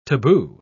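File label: "tabu", British English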